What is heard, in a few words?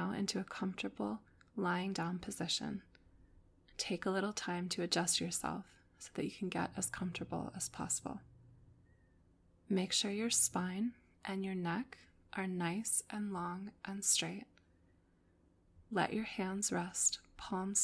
Zen body scan meditation